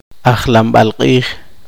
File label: poqomchi; calendario